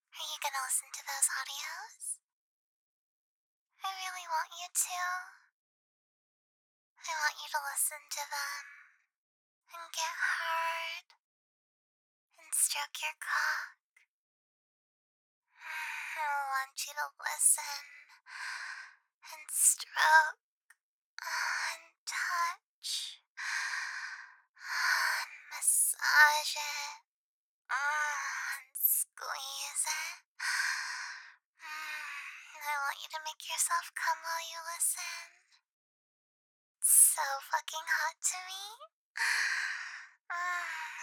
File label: VOICEMAIL